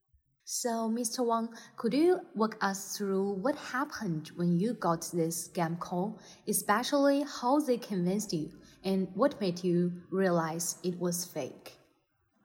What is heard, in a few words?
Interview